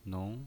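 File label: "nong" second tone, pinyin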